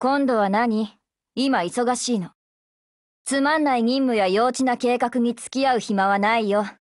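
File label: HELLO, Japanese; GF Alarm; INSAS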